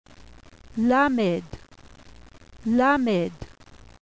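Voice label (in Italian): "lamed", Ebraico; qabalah; alfabeto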